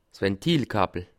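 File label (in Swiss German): "ventilkappel", Bas Rhin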